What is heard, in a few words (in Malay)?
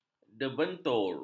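"debentur", Malay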